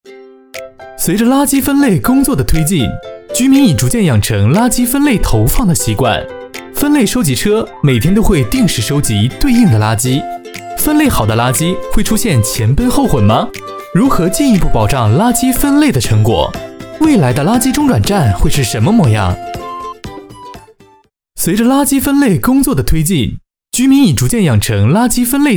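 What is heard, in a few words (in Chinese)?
欢快活力垃圾分类; 飞碟垃圾分类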